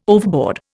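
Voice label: "overboard", Indian English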